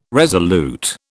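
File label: "resolute", British English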